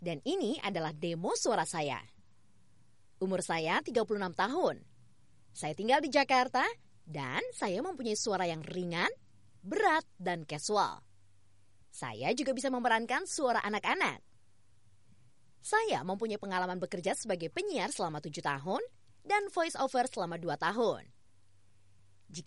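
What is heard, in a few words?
Indonesian Bahasa female studio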